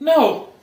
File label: "no", horrified indian dude; Voice; expletives